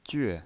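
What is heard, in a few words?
"jue", fourth tone, pinyin voice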